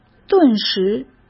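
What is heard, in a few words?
"顿时", Mandarin Chinese; chinese flashcards; hsk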